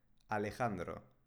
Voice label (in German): "alejandro", spanische jungennamen